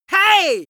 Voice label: angry "hey" male